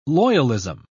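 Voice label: "loyalism", British English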